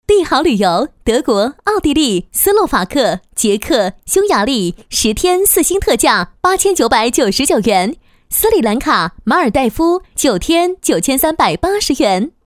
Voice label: 女 宣传广告; 帝豪旅游

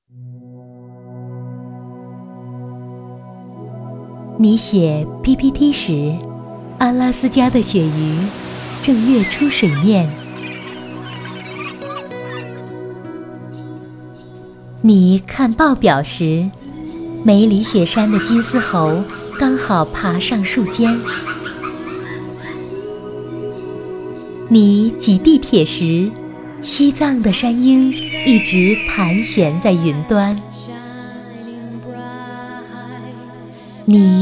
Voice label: 女 广告, 国语女声配音 推荐女声; 九寨之美

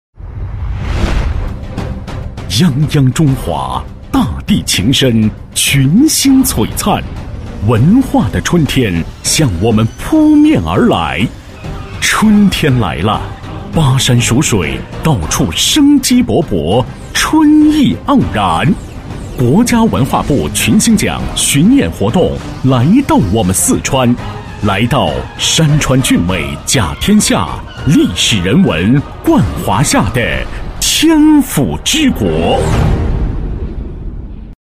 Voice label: 男 颁奖, 国语男声配音

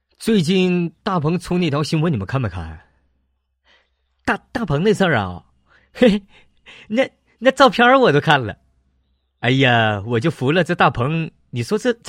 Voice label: 模仿, 国语男声配音; 年轻浑厚; 小沈阳和刘能

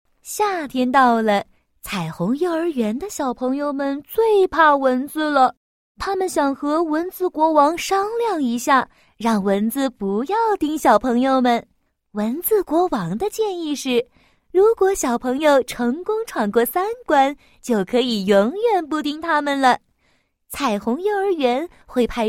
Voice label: 女 课件 儿童读物; 多风格录音; 国语女声配音

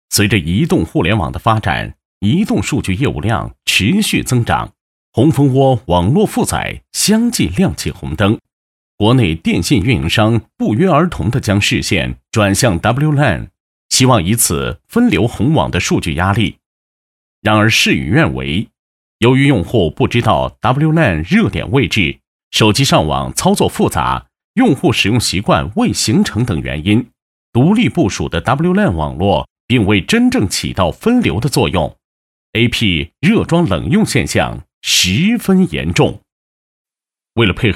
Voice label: 男25 专题干音, 国语男声配音; 华为公司

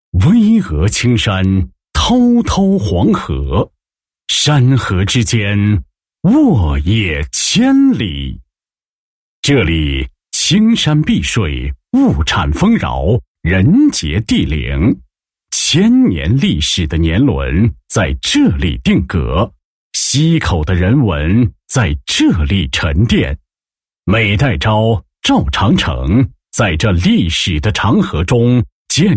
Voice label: 男25-工作巡礼,配音案例; 土右旗现代农业发展巡礼

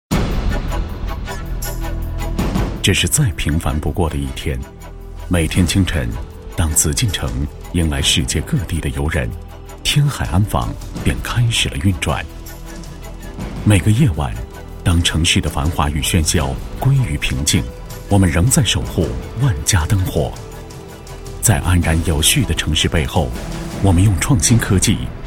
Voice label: 男25号 专题, 国语男声配音; 天海航天

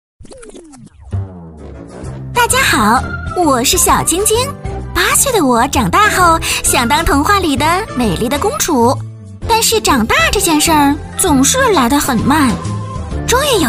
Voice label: 女93号, 国语女声配音; 专题广告; 飞碟说-小箐箐纯棉内衣

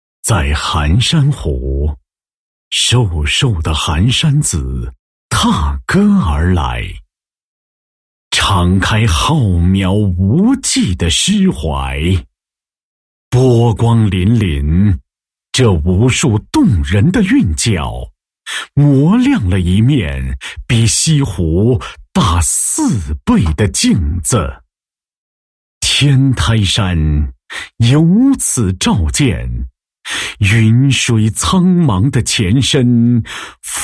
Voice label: 男 散文诗歌; 北干渠礼赞

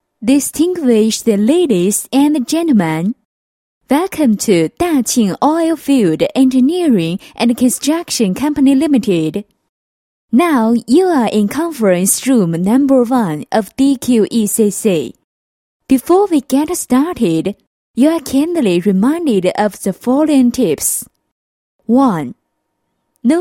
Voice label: 女 欢迎词; 配音案例; 欢迎来到大庆油田工程建设有限公司

